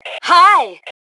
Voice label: Global Hi, Female